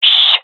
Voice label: request quiet, gendermerie fem; vo